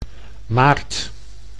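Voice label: "maart", Dutch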